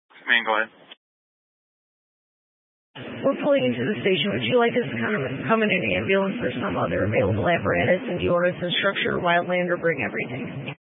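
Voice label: Incident Tac 2, Scanner audio recording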